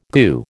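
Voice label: "goo", American English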